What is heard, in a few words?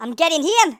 runaway, Geordie; speech